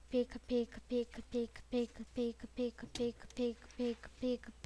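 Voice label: "pick", spoken word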